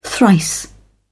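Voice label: "thrice", British English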